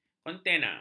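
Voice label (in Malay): "kontena", Malay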